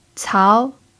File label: "cao2", Mandarin pinyin